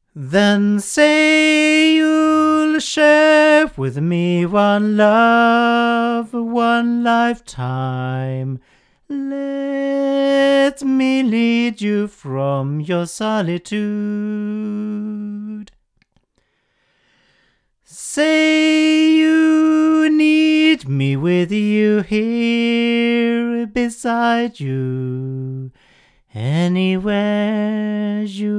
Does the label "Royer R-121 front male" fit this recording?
Yes